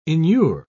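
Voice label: "inure", English, uk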